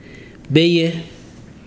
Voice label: become unsalted, ibibio

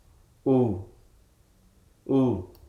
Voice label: hruso, humble

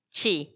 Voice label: "chi ya", already

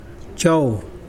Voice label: sun, Mokilese

